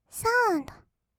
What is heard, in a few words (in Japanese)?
"サウンド", kawaii voice; 音ゲー